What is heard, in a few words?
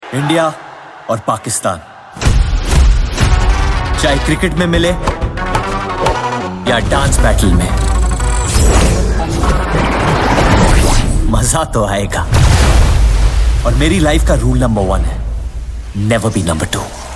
Dialogue Ringtone; Street Dancer